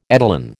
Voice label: "etalon", American